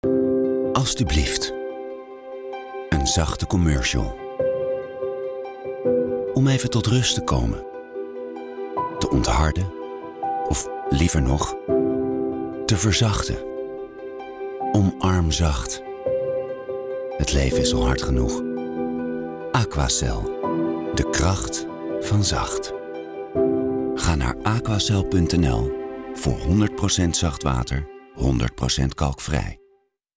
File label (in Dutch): Radiocommercial